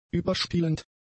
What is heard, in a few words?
"überspielend", German; adjectives